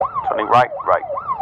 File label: emergency police radio male officer in car sirens turning right right